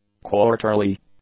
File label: "quarterly", English; adjectives